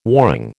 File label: "warring", American